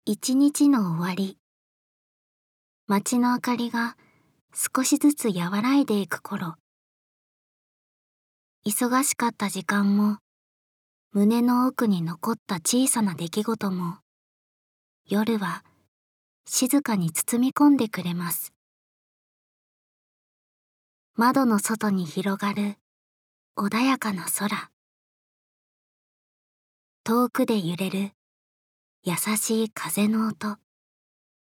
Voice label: Narration